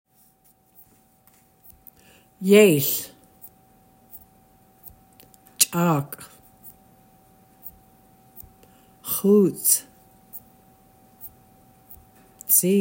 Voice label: Animals, Tlingit